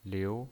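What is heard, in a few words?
"liu", pinyin, Mandarin Chinese, second tone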